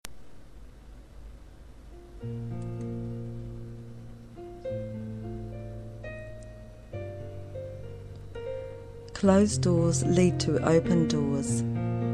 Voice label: Poem Music